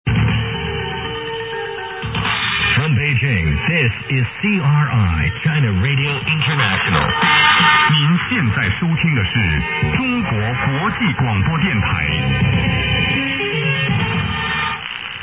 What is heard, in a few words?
china radio int 17490khz, broadcast sound